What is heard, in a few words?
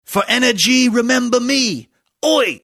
for energy remember me oi battery advert